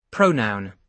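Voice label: "pronoun", British English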